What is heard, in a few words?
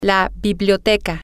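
the library, spanish, female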